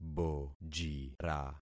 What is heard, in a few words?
"bojira", concat syllables; Affixing experiment